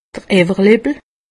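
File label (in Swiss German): "overlippel", Alsatian; medecine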